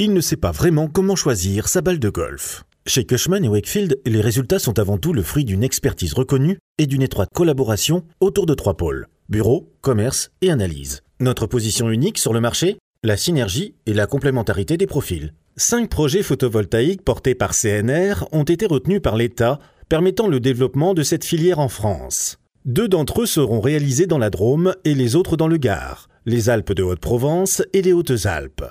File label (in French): Voix off pour vidéo